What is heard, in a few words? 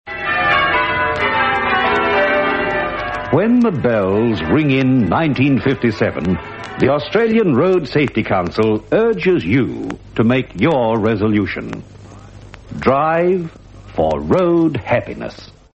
australian road safety council ads; australian otr ads